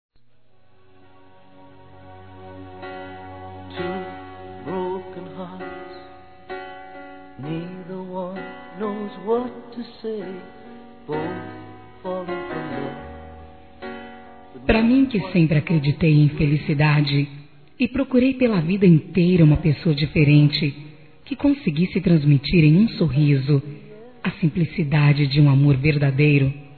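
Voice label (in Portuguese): NEUTRO, female, Dia Dos namorados; Telemensagens 2017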